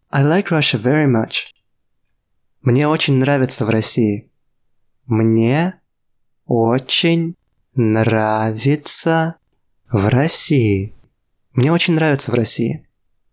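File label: Russian I like Russia very much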